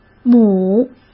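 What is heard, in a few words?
"亩", Mandarin Chinese; chinese flashcards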